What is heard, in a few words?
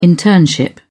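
"internship", British English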